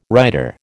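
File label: "rider", American English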